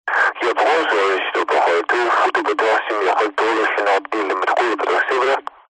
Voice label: airplane atc comm pilot radio indistinguishable, vehicles